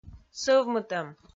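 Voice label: "сӧвмытӧм", Komi, female; audio dict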